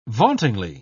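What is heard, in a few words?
"vauntingly", British English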